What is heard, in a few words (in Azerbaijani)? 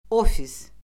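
"ofis", Azerbaijani; Orfoqrafiya Lüğəti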